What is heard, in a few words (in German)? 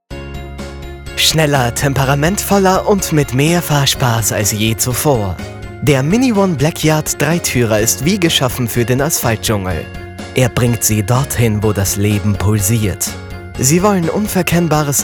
Werbung; Mini